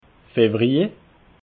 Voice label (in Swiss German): "feverje", alsace